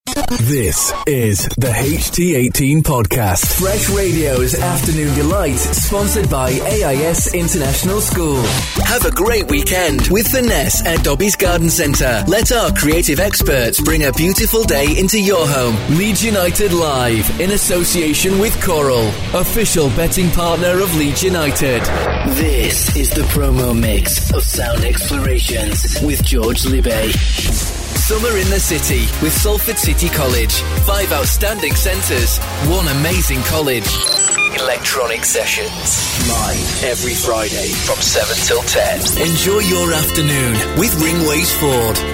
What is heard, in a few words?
imaging